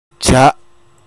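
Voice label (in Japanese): "じゃ" 岡山弁; 「〜です。」のような語尾。意味はない。; 方言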